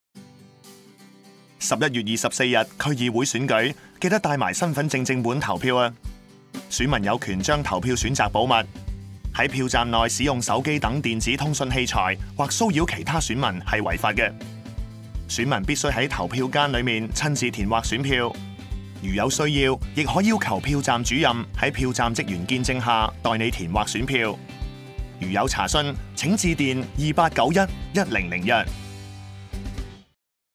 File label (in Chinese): 粵語男聲; API